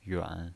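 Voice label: "yuan" Mandarin Chinese pinyin voice, third tone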